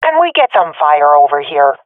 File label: request fire, swat fem; vo; agents; Source Model Packs; Counter Strike Model Pack; CS2